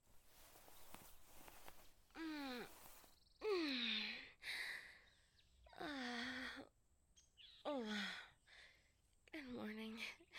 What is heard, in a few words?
Positive Message, asmr